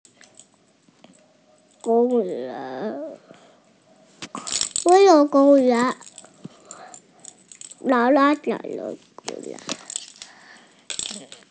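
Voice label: "park", Mandarin Chinese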